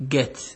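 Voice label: "get", Nigerian Pidgin, male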